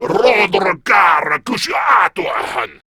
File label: Attack, Male